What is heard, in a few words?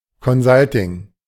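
"Consulting", German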